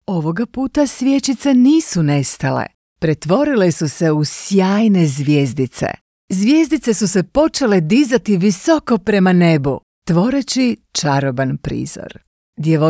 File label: croatian audiobooks